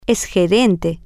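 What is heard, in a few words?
female, spanish; she is a manager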